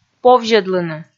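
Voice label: "повзьӧдлыны", Komi, female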